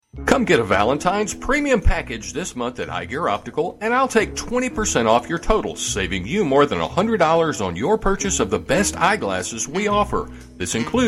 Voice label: Promo Ad